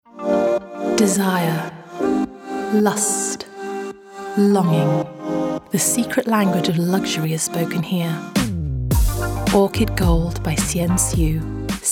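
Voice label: UK English Jewelry Commercial, British English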